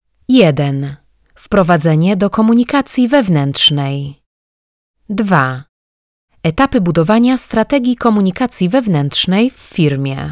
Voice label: Female Polish Voice ELearning